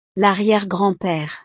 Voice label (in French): "l arriere grand pere", frances; familia